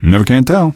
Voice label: answer, male; npc; Garry's Mod; hl2